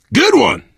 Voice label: nice shot, biker, survivor voice; Left 4 Dead 2

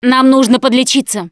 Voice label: suggest health, russian; Producer

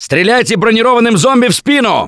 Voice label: see armored, russian, Survivor Voice; Gambler

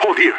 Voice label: radio bot hold; player vo leet

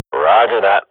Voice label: radio bot reponse positive, Counter-Strike swat; Global Offensive; player vo

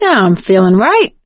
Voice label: pain relief first aid, survivor voice; Left 4 Dead 2; producer

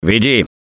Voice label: Lead On, russian; Gambler

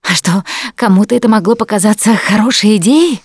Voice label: going to die, russian; Producer

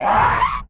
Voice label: feral strike vox; Beyond the Sword; Units

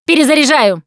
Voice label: reloading, russian, Survivor Voice Producer

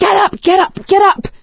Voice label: revive friend loud, survivor voice; Left 4 Dead 2; producer

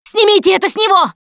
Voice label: hunter pounced, Russian; Voice; Producer

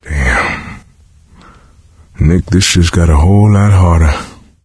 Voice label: survivor mourn, voice; Left 4 Dead 2; coach

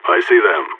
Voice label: radio enemy spotted; player vo phoenix

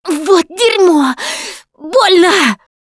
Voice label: going to die, russian; Producer

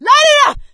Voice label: battle cry tank, survivor voice; Left 4 Dead 2; producer

